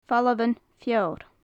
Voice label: "famhalan-feoir", gaelic; Faclan Nàdair

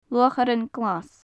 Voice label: "luacharan glas", gaelic; sanderling; luatharan glas; luatharanan-glasa; Faclan Nàdair

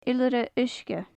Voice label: "iolaire-uisge", gaelic; Faclan Nàdair

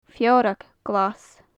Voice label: "feorag ghlas", gaelic; Faclan Nàdair

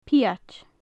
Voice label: "pioghaid", Gaelic; magpie; pioghaidean; Faclan Nàdair

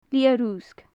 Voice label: "liath-ruisg", Gaelic; Faclan Nàdair

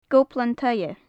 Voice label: "gobhlan taighe", Gaelic; Faclan Nàdair

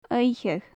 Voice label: "oidhcheadh", Gaelic; nocturnal; oidhcheach; Faclan Nàdair

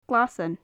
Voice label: "glasan", gaelic; Faclan Nàdair

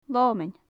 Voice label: "leomann", Gaelic; moth; leòmainn; Faclan Nàdair

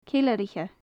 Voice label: "ceileiriche", gaelic; Faclan Nàdair

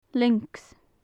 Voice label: "lioncs", gaelic; lynx; Faclan Nàdair

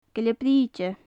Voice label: "gille-brighde", gaelic; oystercatcher; gillean-Brìghde, trìlleachain; Faclan Nàdair

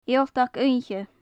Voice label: "ialtag oidhche", gaelic; Faclan Nàdair

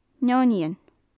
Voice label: "neoinean", Gaelic; Faclan Nàdair

